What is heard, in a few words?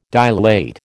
"dilate", American